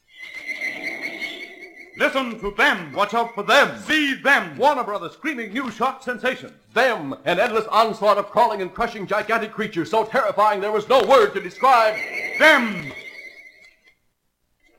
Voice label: Radio Spot; Granny Creech's radio spot crypt